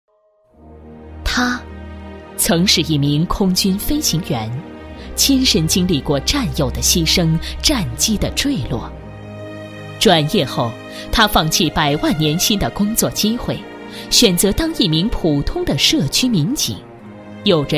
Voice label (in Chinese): 国语女声配音，感动人物 颁奖版; 全国十佳社区民警